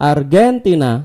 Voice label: "argentina", id; gcompris-data; geography